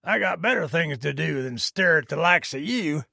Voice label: trader male neutral sale declined